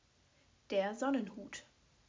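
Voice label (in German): "Sonnenhut", German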